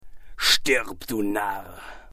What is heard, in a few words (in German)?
Narr; Stirb, du Narr!